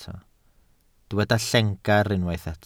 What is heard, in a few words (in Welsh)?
"llengar" Welsh